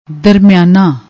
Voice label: "darmiana", Punjabi